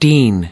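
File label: "dean", American English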